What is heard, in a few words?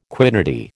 "quiddity", American